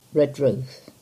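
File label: "Redruth", English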